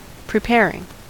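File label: "preparing", US English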